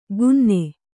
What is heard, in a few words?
"ಗುನ್ನೆ", kannada